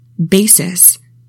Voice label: "BASIS", english; 3000 words